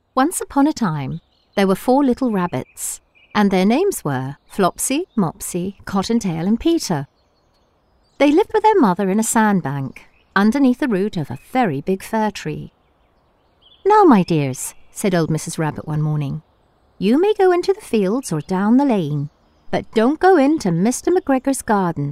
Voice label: Kids Audio Book